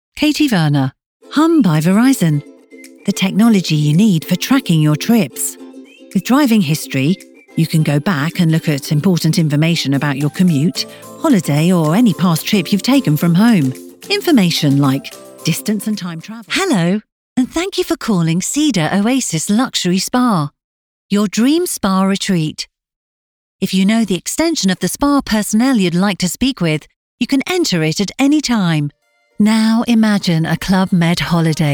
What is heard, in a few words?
Corporate Reel